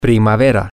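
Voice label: spring, Spanish, male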